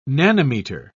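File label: "nanometer", British English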